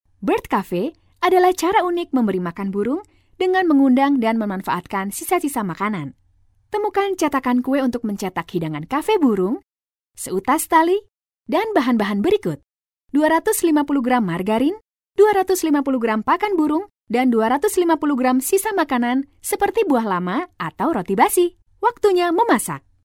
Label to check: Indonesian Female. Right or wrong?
Right